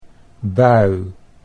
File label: "bui", Dutch